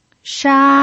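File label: "sha" Mandarin Chinese, first tone, pinyin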